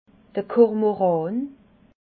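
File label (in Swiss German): "kormoran", Alsatian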